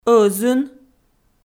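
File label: "ӧзын", Komi, female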